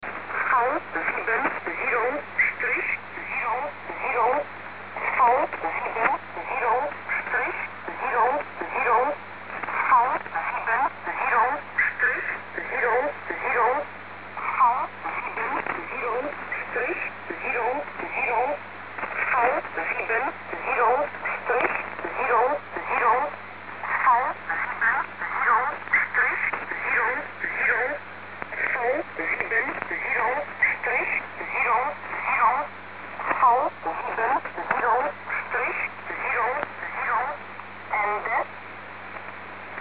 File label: 5815 kHz